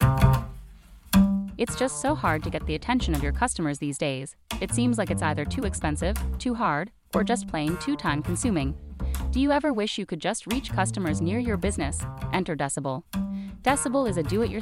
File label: ad voice with music